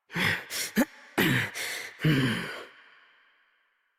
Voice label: Horse Dismount Adult; Warframe; Duviri